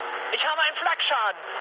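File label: German damaged aa mood med, speech; War Thunder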